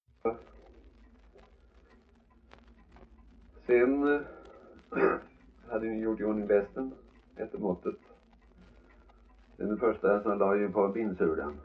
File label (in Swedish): Värö HALL, dialekter; Folkminnen